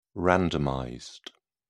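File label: "randomized", British English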